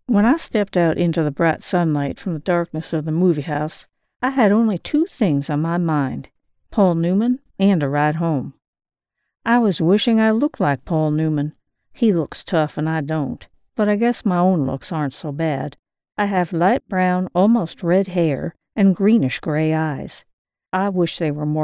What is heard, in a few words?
Audiobook Southern Accent